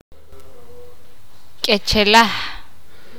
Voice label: "bosque", sakapulteko

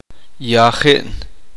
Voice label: "estar enfermo", uspanteko

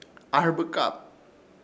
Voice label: "AHƗRBƗKAP" delgadito, Ishir Chamacoco

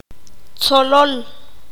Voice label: "mariposa", Q'anjob'al

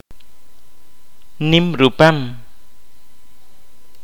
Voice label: "ancho", Kaqchikel

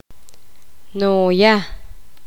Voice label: poqomam abuela paterna

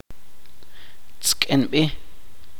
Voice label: congelarse, chuj

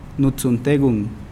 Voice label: Nawat palabras cabeza; pipil

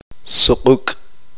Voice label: uspanteko piojo

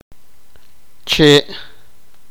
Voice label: "palo", sipakapense

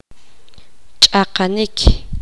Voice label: poqomam dividir

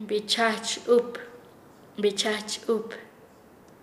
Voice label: "cerca de lechero", Nasa Yuwe; paez

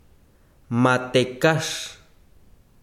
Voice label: "grasa", besiro, chiquitano

